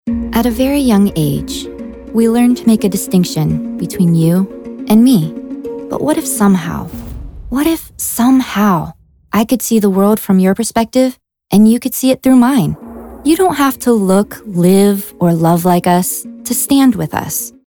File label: Conversational Millenial